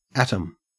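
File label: "atom" Australian English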